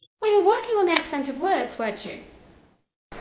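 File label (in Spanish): Voice Acting, Spanish, female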